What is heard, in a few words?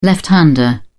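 "left-hander", British English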